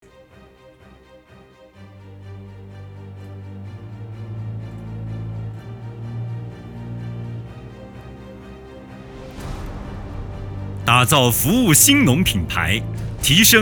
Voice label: 党建品牌示范点专题片配音片段

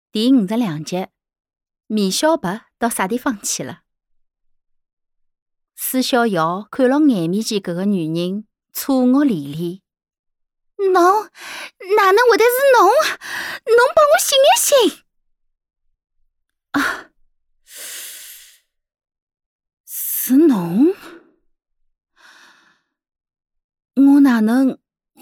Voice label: 沪有声书旁白角色

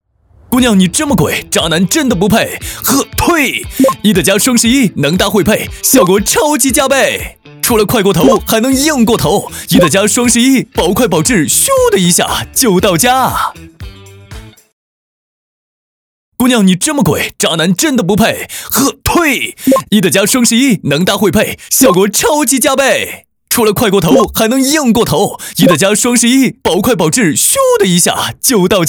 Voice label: 病毒广告轻松幽默; 伊的家

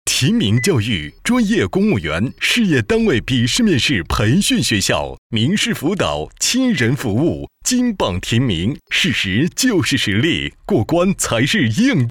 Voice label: 广告

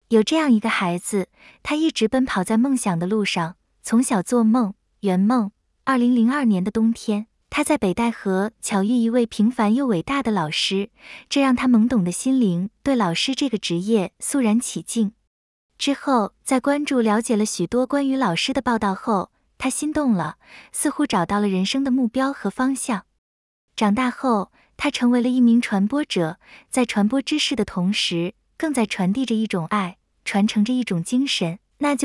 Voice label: 旁白

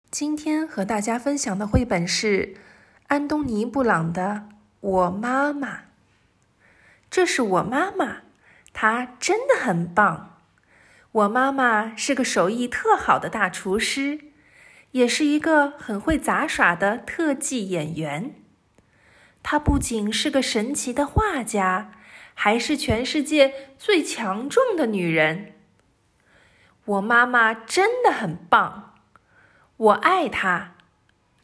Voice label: 有声读物配音

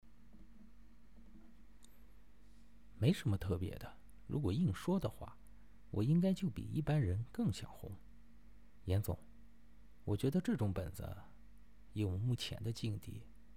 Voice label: 模仿

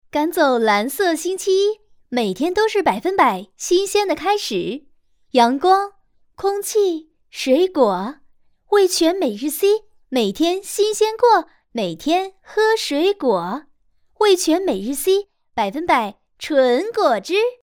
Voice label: 时尚 广告 配音

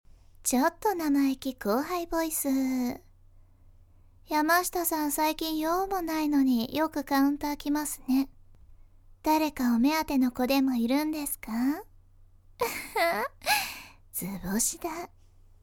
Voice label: 高傲的后辈

